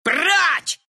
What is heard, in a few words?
poof ru; Meepo